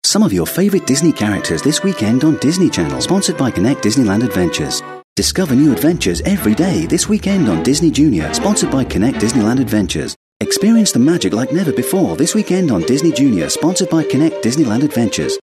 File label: British Voiceover Montage; DISNEY BUMPER